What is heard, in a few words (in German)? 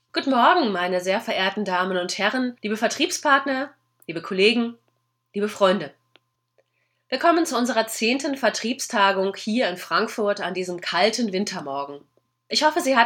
sprechprobe de